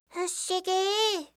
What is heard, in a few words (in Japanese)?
"fushigi", reaction, voice